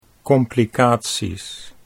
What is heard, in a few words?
"complicaties", Dutch